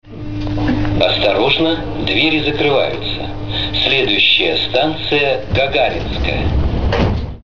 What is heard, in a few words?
male